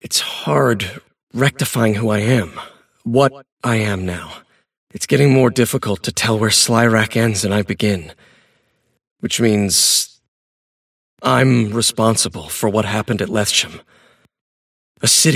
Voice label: monologue; davion; dota

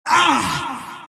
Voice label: monkey crown pain; dota; monkey king

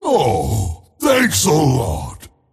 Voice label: tiny thanks; dota

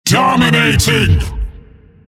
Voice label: announcer kill dominate; killing spree; dota; announcer dlc monkey king

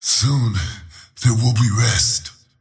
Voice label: lasthit pain; slyrak; dota

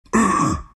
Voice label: treant pain

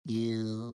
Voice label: happy; shredder

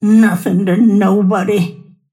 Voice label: lasthit pain; snapfire

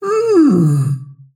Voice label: happy; snapfire

